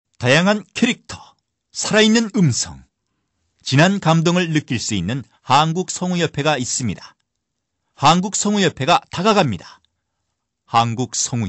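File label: Korean, male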